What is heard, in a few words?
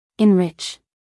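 "enrich", American English, female; 1000 hours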